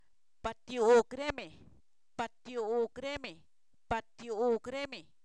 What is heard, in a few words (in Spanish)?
cortan (ellos), Zapoteco, san miguel albarradas; verbos; INALI